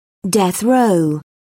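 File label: "death row", British English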